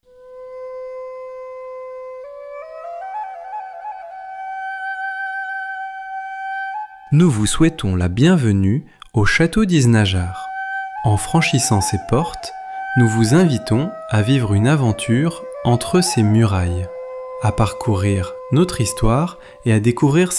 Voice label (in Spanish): audioguia frances; Ruta audioguiada; Français